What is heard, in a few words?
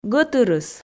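"гӧтырыс", Komi, female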